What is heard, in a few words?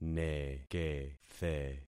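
"nekethe", concatenated syllables; Affixing experiment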